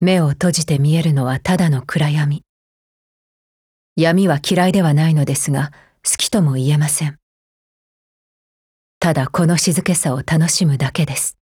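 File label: dialogue, Japanese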